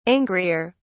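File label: "angrier", British English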